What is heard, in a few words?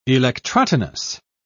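"electrotonus", British English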